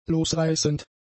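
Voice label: "losreißend", German; adjectives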